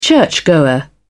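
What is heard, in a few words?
"churchgoer", British English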